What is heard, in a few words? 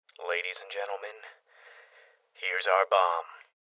Voices Bomb Spotted Male Young; Rebel Cops